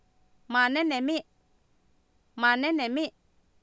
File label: maa nEnemI, Oapan minimal pairs female; Oapan minimal pairs 2000